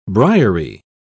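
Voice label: "briery", British English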